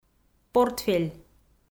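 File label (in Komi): "портфель" Komi, female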